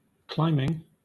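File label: "climbing", British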